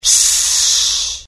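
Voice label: curious, Italian; mohaa; dialogue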